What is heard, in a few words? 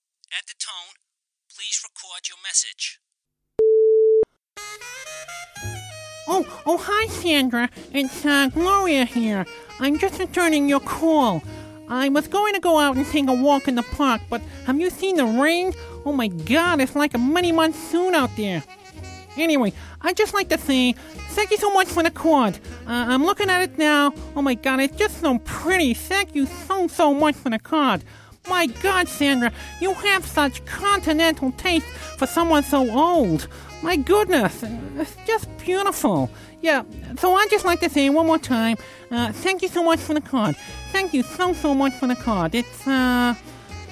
character voice